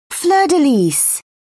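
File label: "fleur-de-lis", British English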